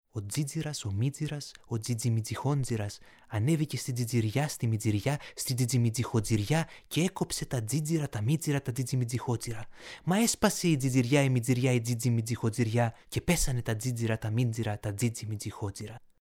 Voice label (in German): Griechenland Zungenbrecher